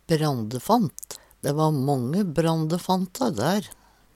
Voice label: brandefant, Numedalsmål; Substantiv hankjønn

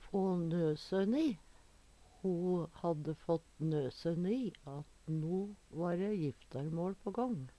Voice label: "få nøsøn i", Numedalsmål; Uttrykk; Ho fækk nøsøn i at no va dæ jiftarmåL på gång.

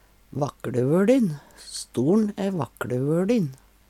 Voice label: "vakkLevolin", Numedalsmål; StoL`n æ vakkLevøLin.

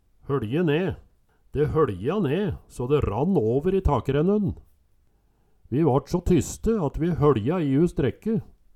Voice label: hoLje ne, Numedalsmål; høLje ne

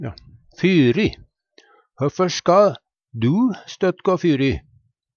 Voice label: "fyri", Numedalsmål; Høffør ska du støtt gå fyri?; framfor, før

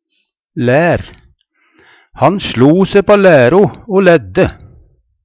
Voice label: "laer", Numedalsmål

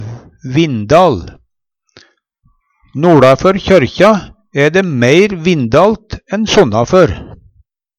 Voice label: vindal, Numedalsmål; NoLafør kjørkja æ dæ meir vindalt enn sonnafør.; Adjektiv

